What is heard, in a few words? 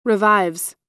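"revives", American English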